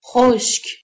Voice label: "xoSk", Persian, F

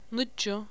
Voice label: "9", Faroese

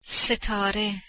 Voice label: "sitare", Persian

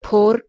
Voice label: "pur", Persian

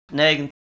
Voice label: "neGn", Proto Germanic

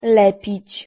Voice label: "lepiC", Polish

